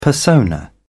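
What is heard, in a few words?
"persona", British English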